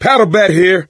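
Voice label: melee weapons, voice, male; Left 4 Dead 2; coach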